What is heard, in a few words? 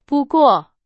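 Chinese; hsk2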